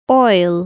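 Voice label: "oil", English word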